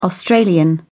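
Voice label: "australian", British English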